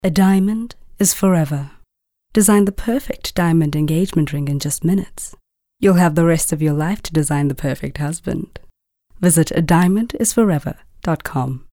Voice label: Soft Sell